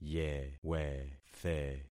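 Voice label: "yewethe", concatenated syllables; Affixing experiment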